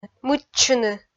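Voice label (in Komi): "мыччыны", Komi, female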